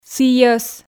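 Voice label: "сійӧс", Komi, female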